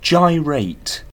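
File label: "gyrate" British English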